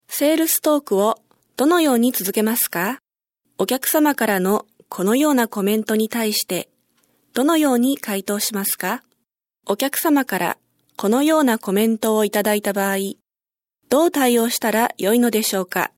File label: japanese f commercial; voice demos